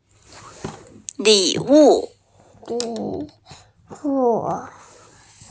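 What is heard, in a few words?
"li wu", Mandarin Chinese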